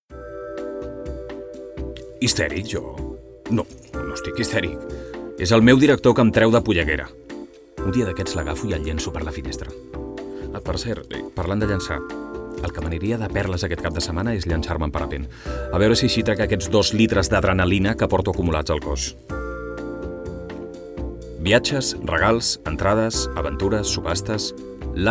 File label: male catalan outlet brand comercial